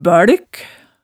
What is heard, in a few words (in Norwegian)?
"bølk", hemsedalsmaalet tale